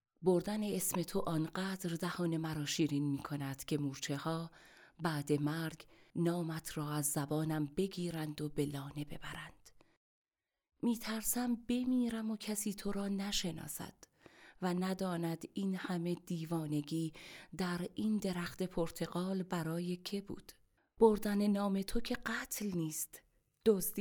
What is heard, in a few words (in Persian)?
نمونه صدای دوم شعر